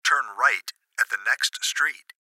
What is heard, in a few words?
Gps Voice, Male; Speaker Adult Male; Recorded Voice; Blastwave